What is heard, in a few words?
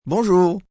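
Hello French, Greetings, male, French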